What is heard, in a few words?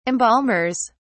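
"embalmers", British English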